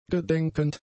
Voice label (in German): "gedenkend", German; adjectives